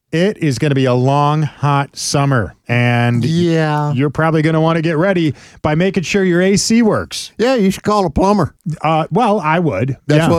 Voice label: RADIO AD; nicks plumbing radio ads